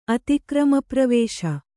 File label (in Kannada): "ಅತಿಕ್ರಮಪ್ರವೇಶ", Kannada